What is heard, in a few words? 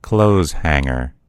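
"clothes hanger", American English; gcompris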